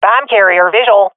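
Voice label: sees bomb carrier, swat female; vo; Counter Strike Model Pack; CS:GO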